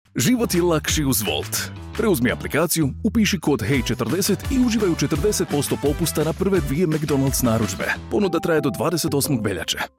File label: Smooth, croatian